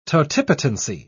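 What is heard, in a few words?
"totipotency", English, British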